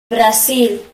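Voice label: brazil, Spanish; gcompris-data; geography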